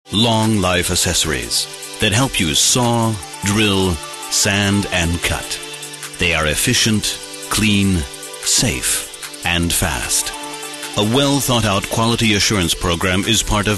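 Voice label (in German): English US Werbung Dynamisch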